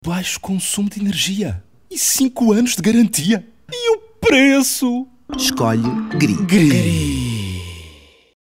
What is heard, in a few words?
male portuguese character for tv commercial; gree